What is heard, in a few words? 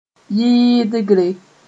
"yɩɩdgre", Mooré